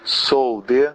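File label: I'm from, Brazilian Portuguese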